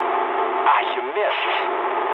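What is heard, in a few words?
English bomb failure mood low; speech; War Thunder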